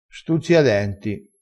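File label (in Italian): "stuzziadenti", vocabolario dialettale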